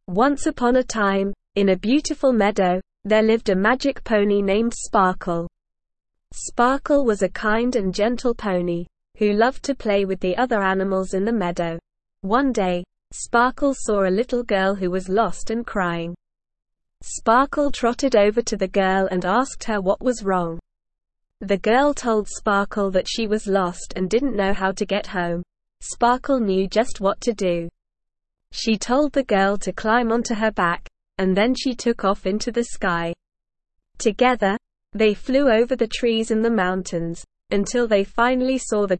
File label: ESL Short Stories for Kids SLOW reading; The Magic Pony